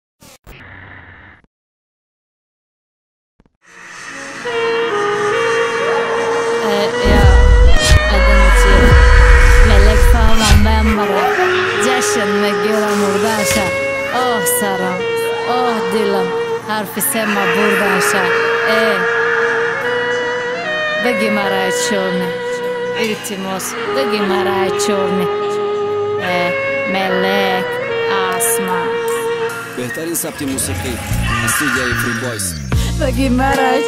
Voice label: rap